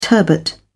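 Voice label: "turbot", British English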